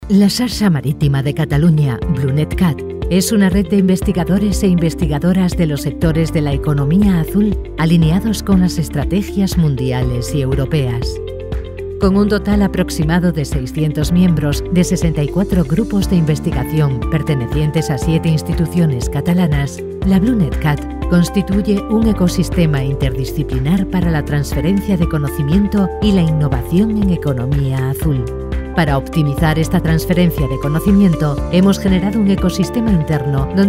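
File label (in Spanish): corporativo España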